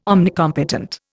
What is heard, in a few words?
"omnicompetent", Indian